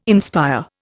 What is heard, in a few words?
"inspire", Indian